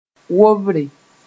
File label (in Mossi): "wobre", moore